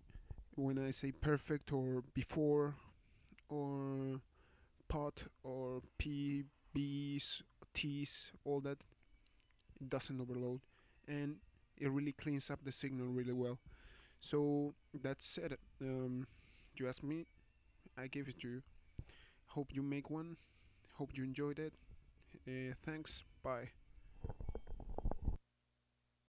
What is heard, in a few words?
pop filter